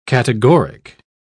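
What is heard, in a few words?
"categoric", British English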